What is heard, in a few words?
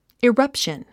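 "eruption", English; ごろたん 語呂でイメージする英単語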